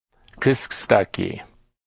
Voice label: beaver, Blackfoot